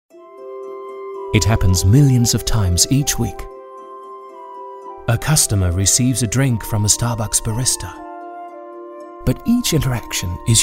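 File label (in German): English UK Sanft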